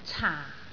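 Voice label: "caa4", Cantonese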